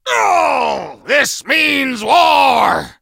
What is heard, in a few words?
hank hurt